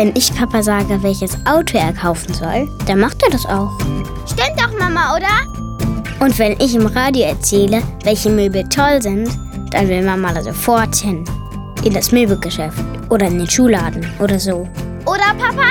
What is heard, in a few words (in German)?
Werbung Kinderstimmen